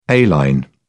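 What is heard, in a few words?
"a-line", British English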